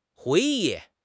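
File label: "hui2 yi4", Mandarin Chinese; HSK4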